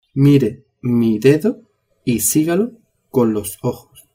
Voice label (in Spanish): "mire mi dedo", spanish